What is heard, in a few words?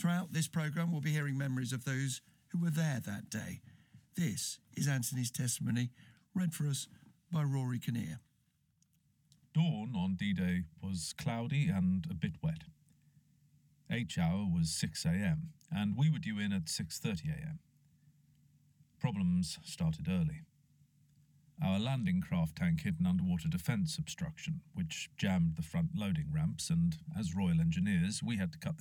radio 4 reading